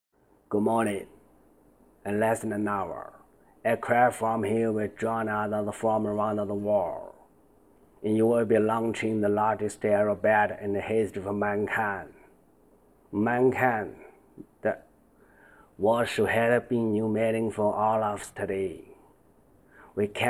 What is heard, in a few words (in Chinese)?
独白英文; 独立日